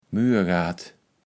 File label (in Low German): "Murgaat", Platt